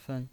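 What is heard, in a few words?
"fen" Mandarin Chinese, neutral tone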